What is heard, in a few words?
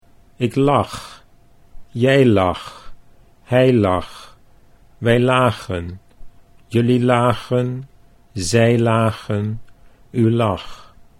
"ik lag jij lag", Dutch